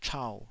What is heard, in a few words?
"chau3", taiwanese